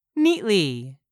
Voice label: "neatly", English; 3000 words